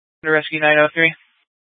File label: Fire Dispatch; H- Scottsdale